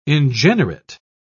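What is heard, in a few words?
"ingenerate", British English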